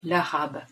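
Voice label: "l'arabe", pronunciation, French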